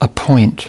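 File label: "Appoint", American English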